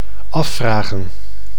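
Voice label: "afvragen", Dutch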